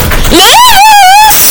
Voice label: bass boosted

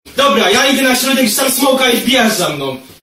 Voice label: angry polish kid

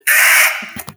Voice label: loud ah

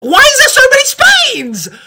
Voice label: raging

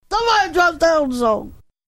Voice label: jibberish

